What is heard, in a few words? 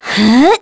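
Vox Casting, Korean; heroes; Aselica; kingsraid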